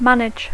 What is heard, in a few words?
"manage", English